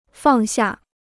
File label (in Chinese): "放下", Chinese